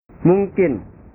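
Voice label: "mungkin", Indonesian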